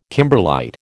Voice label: "kimberlite", American